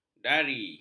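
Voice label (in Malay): "dari", Malay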